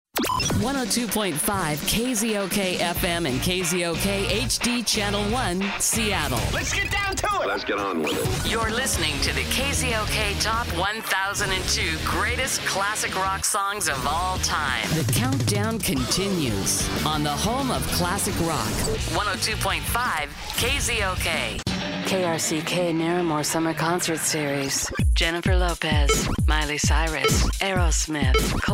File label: Imaging